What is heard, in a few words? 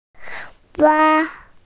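"hua1", Mandarin Chinese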